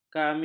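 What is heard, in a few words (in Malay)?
"kami", Malay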